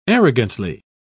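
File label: "arrogantly", British English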